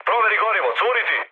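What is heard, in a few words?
Serbian tank damaged mood high; War Thunder; speech srb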